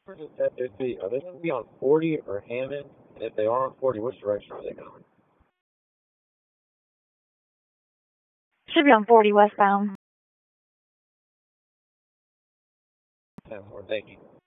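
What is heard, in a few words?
Police Southeast District Dispatch; Raleigh; wake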